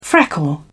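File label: "freckle", British English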